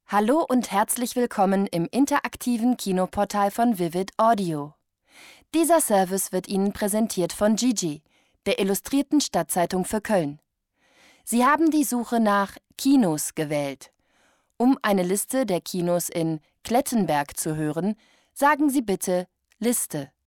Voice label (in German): audioguide